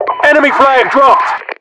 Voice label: announcer axis flag drop